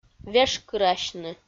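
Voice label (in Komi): "вешкырасьны", Komi, female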